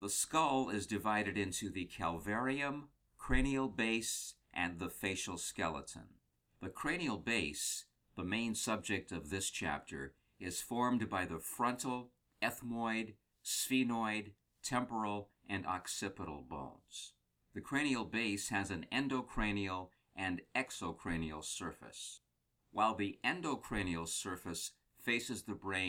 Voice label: Medical Anatomy Narration

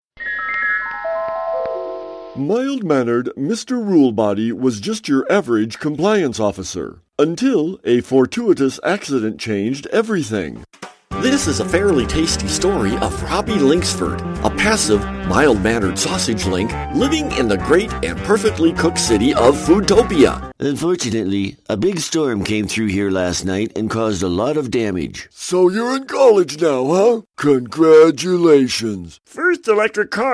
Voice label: Animation Character

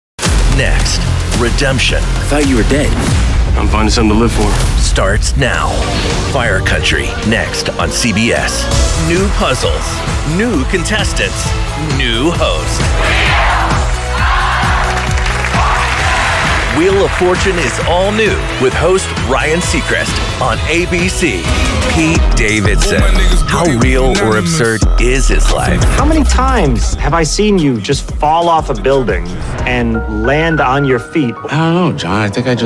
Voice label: Promo

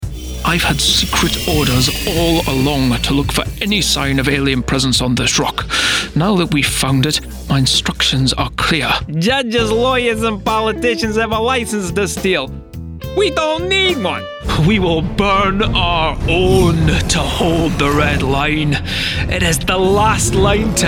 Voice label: Character

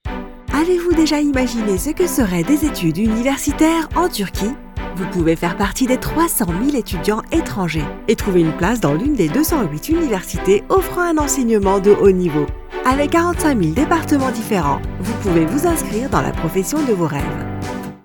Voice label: advertising

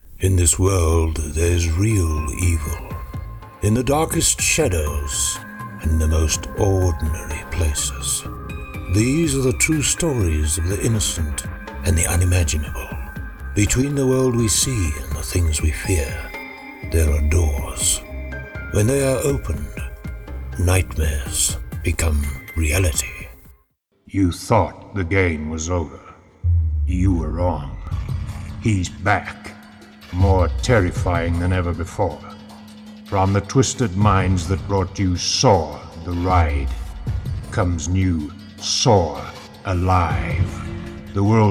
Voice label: Horror Trailers

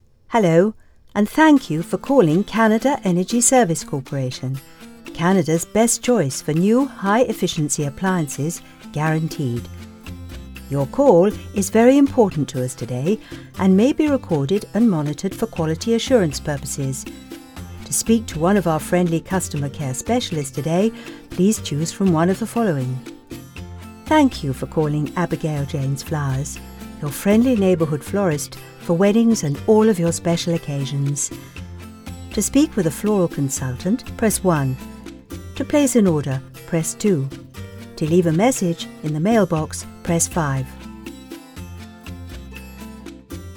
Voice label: on hold

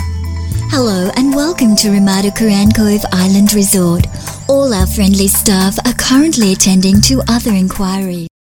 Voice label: On Hold; English (Australian)

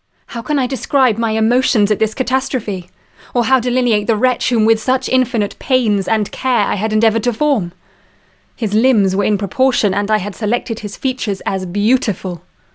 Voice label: Dry Studio

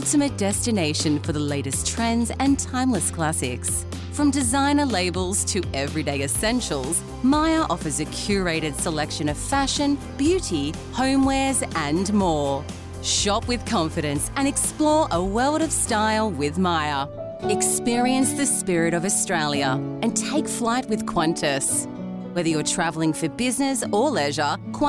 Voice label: Soft Mid Conversational

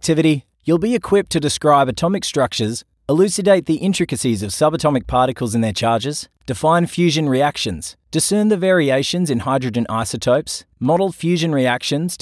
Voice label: E-learning

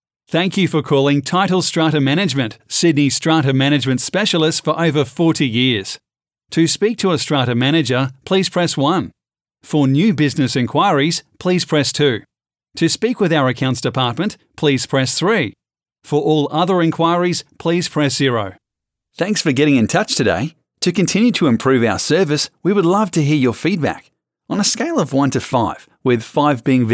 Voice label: IVR

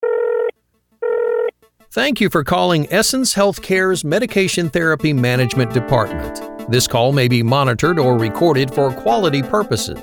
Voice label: Telephony

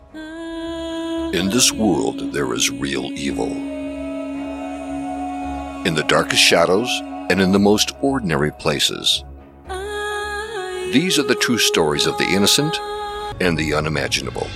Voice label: Film Trailer; Indi

